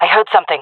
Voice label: heard sound, fem; player vo jungle fem

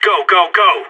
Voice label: radio lets go; Counter Strike; CS:GO

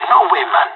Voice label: disagree, pirate; Counter Strike Model Pack; CS:GO

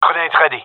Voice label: request grenade, male; vo

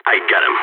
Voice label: enemy down, balkan

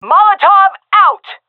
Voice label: throwing molotov, vo fem; agents; Source Model Packs; Counter Strike Model Pack; CS2

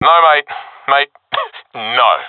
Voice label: negative, professional; vo; Counter Strike Model Pack; CS:GO

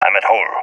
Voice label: at hole, balkan; balkan epic

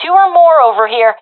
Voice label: sees multiple enemies, professional fem; vo; Counter Strike Model Pack; CS:GO

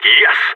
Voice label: on a roll brag, balkan; vo

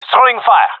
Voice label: throwing fire, male; vo

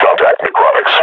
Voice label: announce enemy zombie, combine grunt; Half Life Model Pack

